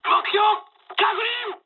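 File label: near waypoint mood med, speech japanese; War Thunder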